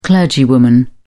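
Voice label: "clergywoman", British English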